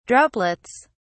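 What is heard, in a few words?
"droplets", British English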